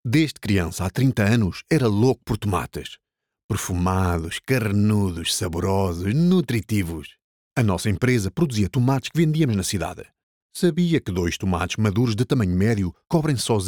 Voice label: imagefilm, pt iberian; viva

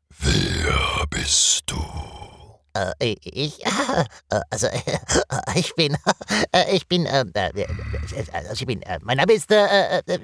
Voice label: Cartoon; NOFILTER

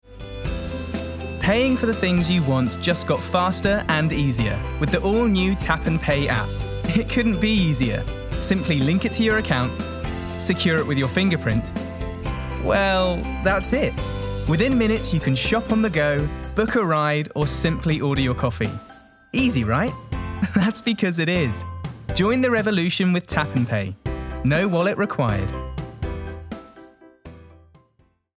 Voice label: TAP N PAY ADVERT; LOFT VOICES

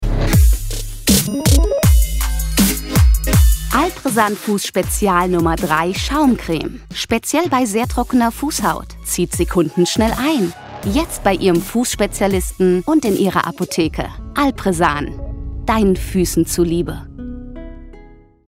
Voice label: TV-Spot; Allpresan Yellow

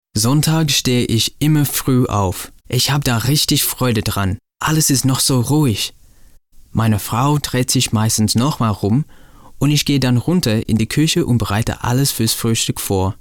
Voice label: German VO

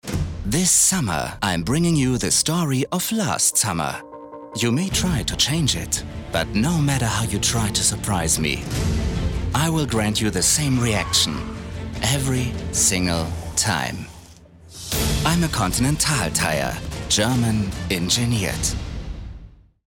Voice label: english

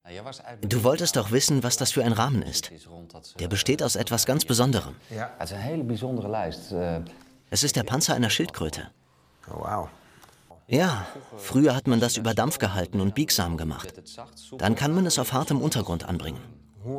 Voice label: Voiceover Dialog Doku, German; Voiceover Gespräch; 3sat

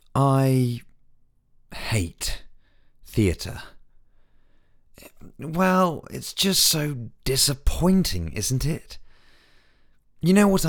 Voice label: character; LOFT VOICES

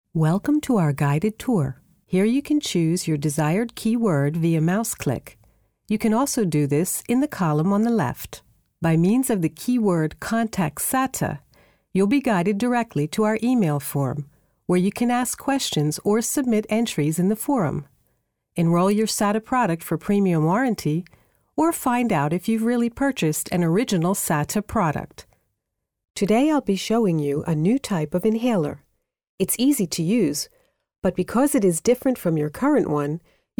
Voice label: instruction medley

